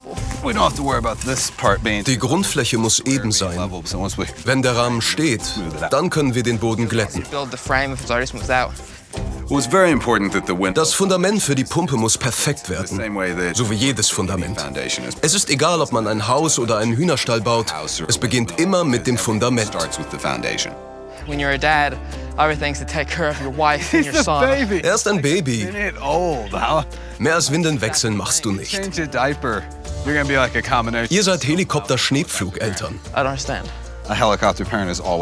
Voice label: VoiceOver